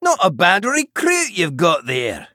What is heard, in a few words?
Male Dwarf Recruit Medium Minion